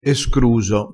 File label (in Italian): "escruso", crespinese